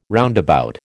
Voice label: "roundabout", American

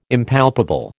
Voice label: "Impalpable", American

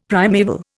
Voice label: "primaeval", Indian; Dictionary

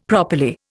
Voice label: "properly", Indian; Dictionary

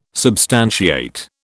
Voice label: "substantiate", British

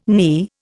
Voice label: "knee", Indian

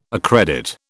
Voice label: "accredit", British

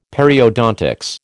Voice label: "Periodontics", American

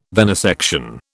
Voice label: "venisection", British

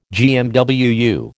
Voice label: "GMWU", American

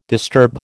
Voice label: "disturb", American

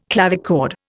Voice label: "clavichord", Indian

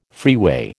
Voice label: "freeway" American

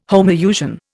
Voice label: "homousian", Indian

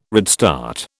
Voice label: "redstart", British

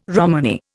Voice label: "Romany", Indian

